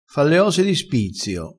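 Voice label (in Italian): "spizzio", vocabolario dialettale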